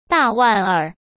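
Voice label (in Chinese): "大腕儿", Mandarin Chinese; hsk; chinese-flashcards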